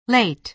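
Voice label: "late", American English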